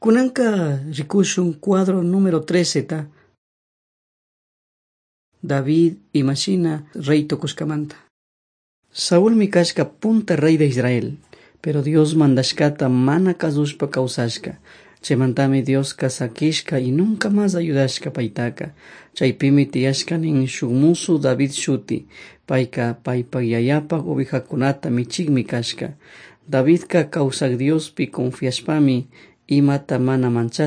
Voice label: servants of god quichua chimborazo